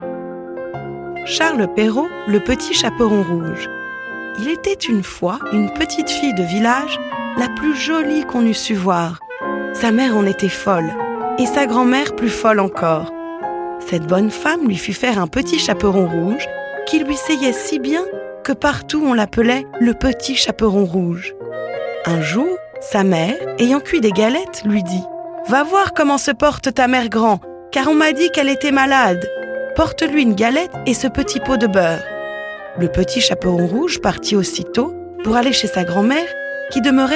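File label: French, Audiobook; GoLocalise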